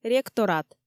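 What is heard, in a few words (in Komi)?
"ректорат", Komi, female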